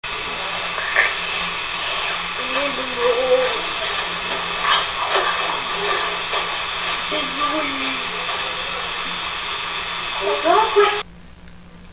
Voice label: whispering